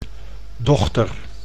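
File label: "dochter", Dutch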